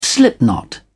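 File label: "slipknot", British English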